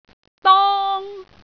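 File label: "dong1", Cantonese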